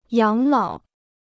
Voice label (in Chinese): "养老", Chinese